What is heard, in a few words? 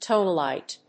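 "Tonalite", English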